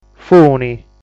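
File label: "Funi", Sardinian word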